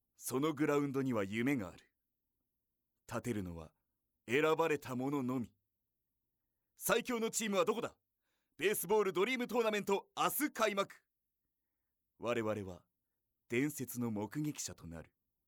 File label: narration